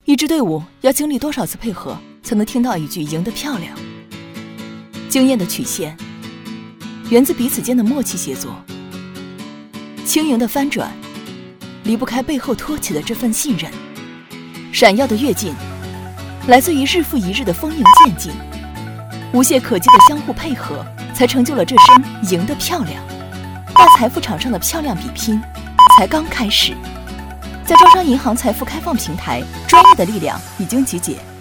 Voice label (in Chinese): 女 旁白 艺术体操; 招商银行